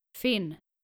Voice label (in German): "finn", nordische jungennamen